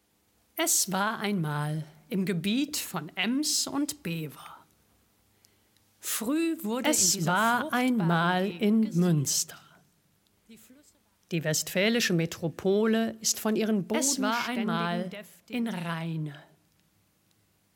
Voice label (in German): Alle Ansagen ineinander gemischt